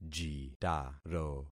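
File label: "jitaro", concatenated syllables; Affixing experiment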